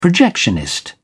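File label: "projectionist", British English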